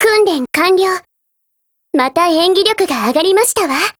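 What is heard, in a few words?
FEED, Japanese; DesertEagle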